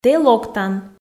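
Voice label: "тэ локтан", Komi, female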